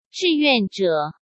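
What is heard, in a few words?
"志愿者", Mandarin Chinese; hsk; chinese-flashcards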